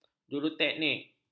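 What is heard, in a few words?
"juruteknik", Malay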